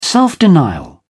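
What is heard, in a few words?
"self denial", British English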